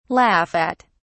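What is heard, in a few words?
"laugh at", British English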